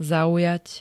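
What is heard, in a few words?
"zaujať", Slovak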